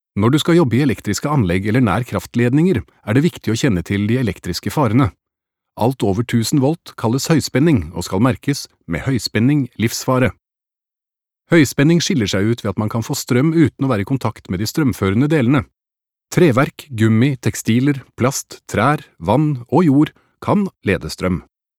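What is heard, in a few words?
Norwegian e-learning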